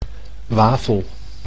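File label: "wafel", Dutch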